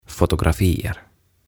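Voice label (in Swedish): "fotografier", Swedish; Valokuvat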